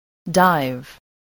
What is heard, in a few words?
"dive", spelling